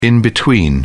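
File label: "in-between", British English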